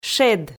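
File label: "шед", Komi, female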